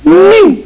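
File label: lady Three; Wayzata Technology; Garden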